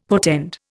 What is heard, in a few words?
"portend", Indian; Dictionary